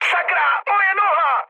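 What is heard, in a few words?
damaged pilot, Czech, mood med; speech; War Thunder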